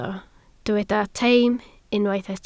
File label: "teim", welsh